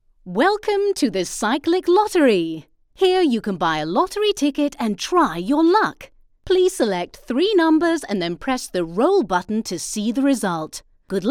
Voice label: lottery narration english; Cyclic Digital